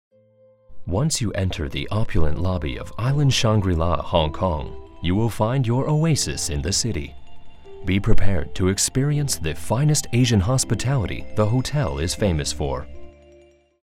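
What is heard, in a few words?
hotel corporate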